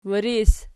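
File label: "wiris" nominative, Archi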